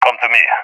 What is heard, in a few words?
request regroup, balkan; vo; balkan epic